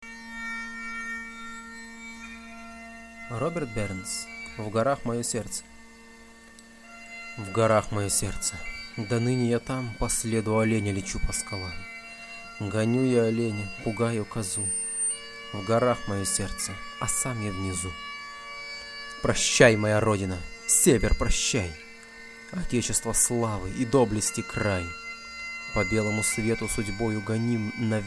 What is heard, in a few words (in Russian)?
Голос за кадром; Р Бёрнс